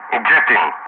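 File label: "ejecting", English; Ka-50; Wingman Messages; DCS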